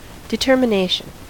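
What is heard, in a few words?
"determination", American English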